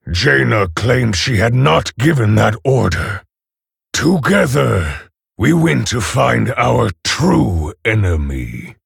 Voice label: Male Orc Ogre

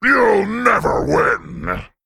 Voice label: Male Worgen Summon

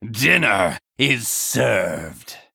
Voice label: Male Vampire Attack

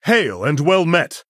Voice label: Male Human GREETINGS

